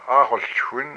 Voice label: "there is a smell", hupa